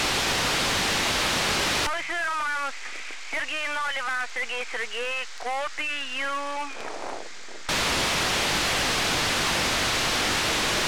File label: iss voice 145mhz800 pauses removed